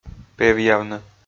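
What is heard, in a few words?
"певъявны", Komi, male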